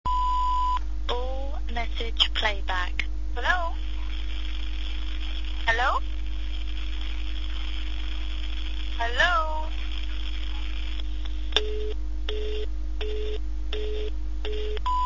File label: phone messages